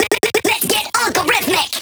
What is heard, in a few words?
Vocal Pitched Phrase Male; bass house; Algorhythmic